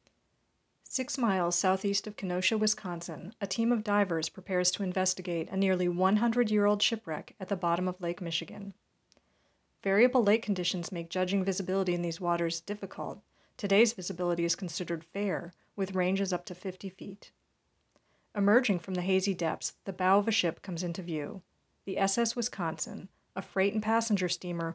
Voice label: Narrator; Shipwrecks

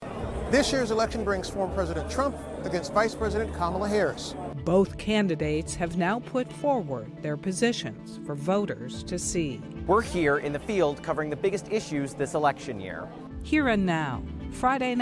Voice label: Reporter Promos; Fall; Policies

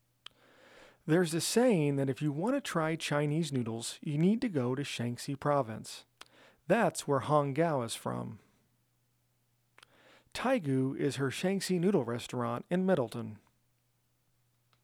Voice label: Noodles SCRATCH VO

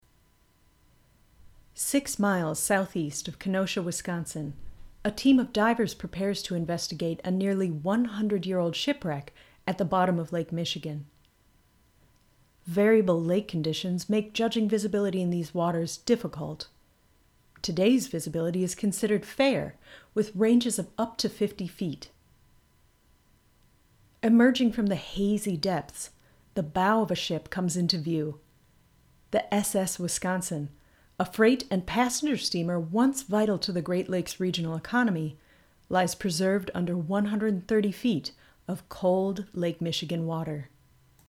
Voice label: Narrator; Shipwrecks

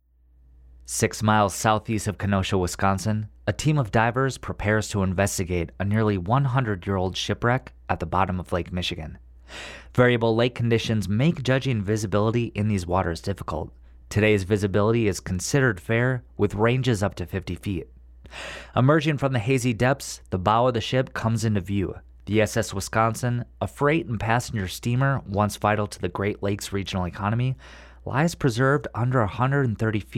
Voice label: Narrator; Shipwrecks

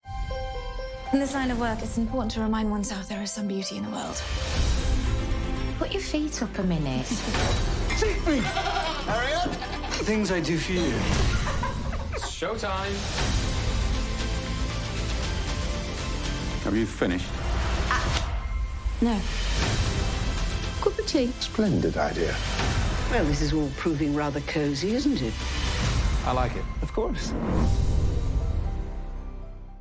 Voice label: Drama Combo Promo; Always On; Winter 2023

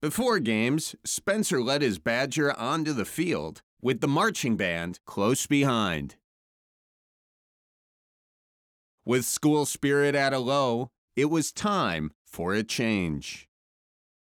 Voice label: VO PICKUPS; BUCKY

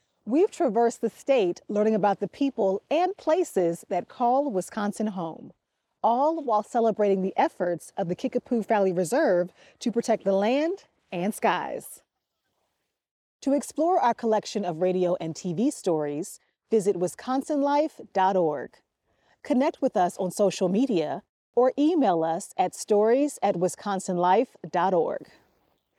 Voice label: host outro dialogue; Wisconsin Life; Kickapoo

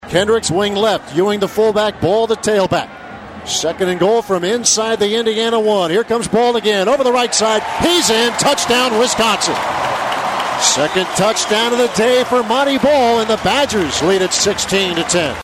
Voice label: Football Radio Clips; Bucky Badger; UW Athletics; BALL TD wis 16 ind 10

